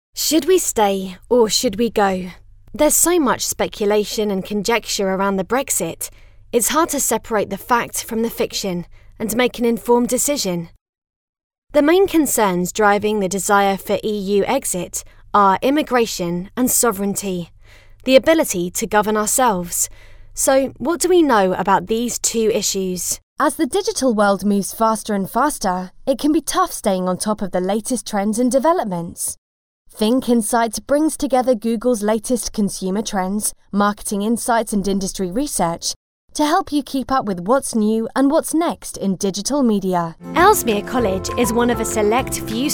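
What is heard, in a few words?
British English, Mix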